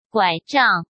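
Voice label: "拐杖", Mandarin Chinese; hsk; chinese-flashcards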